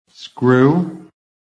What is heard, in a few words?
"SCREW", american